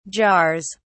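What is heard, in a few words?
"jars", British English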